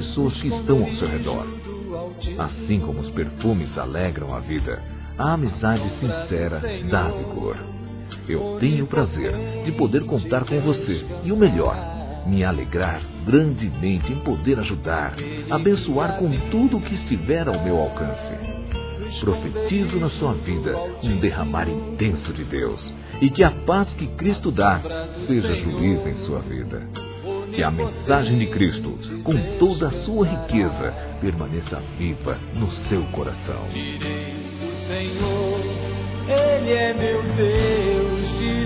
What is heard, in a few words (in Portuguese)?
HOMENAGEM AO AMIGO M, Telemensagens, Gospel, masc